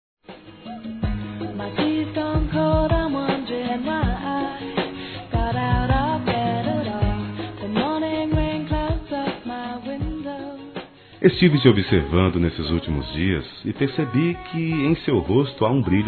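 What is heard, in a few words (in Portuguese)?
GESTANTE ROMANTICA, Telemensagens, Românticas masculino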